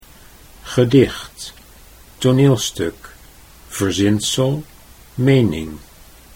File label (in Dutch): "gedicht toneelstuk", Dutch